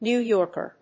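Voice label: "New Yorker", English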